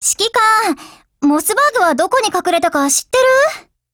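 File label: DIALOGUE, Japanese; SuperShorty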